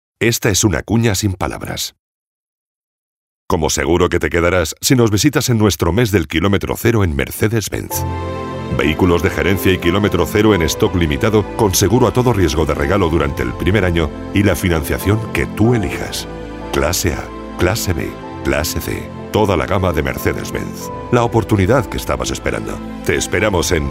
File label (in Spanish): Masculino; Sonoarte